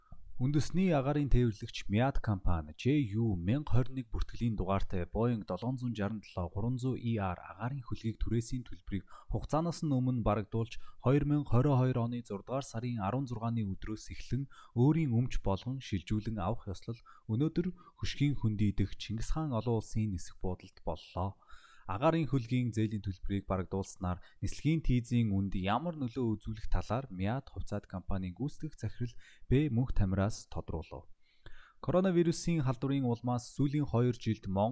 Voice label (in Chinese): 蒙古语男